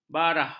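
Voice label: "barah", Malay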